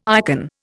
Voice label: "ikon", Indian

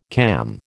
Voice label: "cam", American

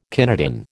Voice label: "kinetin", American

